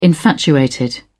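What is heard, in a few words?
"infatuated", British English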